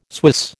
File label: "Swiss", American